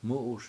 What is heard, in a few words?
"mU7uS", washo; to run